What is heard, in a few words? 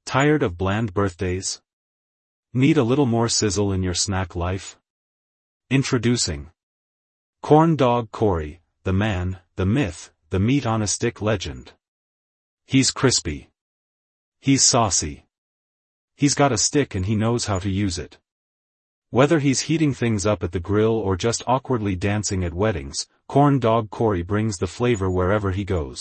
infomercial; corn dog corey